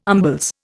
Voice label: "umbles", Indian

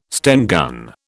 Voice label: "Sten gun", British

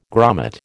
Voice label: "grommet", American

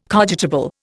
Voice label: "cogitable", Indian

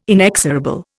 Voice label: "inexorable", Indian

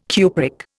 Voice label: "cupric", Indian

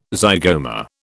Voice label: "zygoma", British

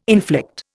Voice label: "inflict", Indian

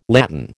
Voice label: "latten", American

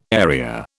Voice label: "area", British

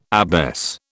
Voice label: "abbess", British

